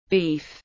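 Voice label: "Beef", English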